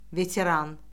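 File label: "veteran", Azerbaijani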